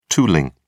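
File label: "tooling", British English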